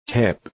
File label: "hip", English